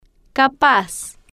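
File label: "ca-paz", Spanish, female, slow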